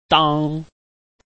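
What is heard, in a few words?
"dang", Chinese, tone 1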